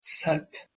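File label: "selp", Proto-Indo-European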